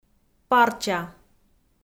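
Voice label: "парча", Komi, female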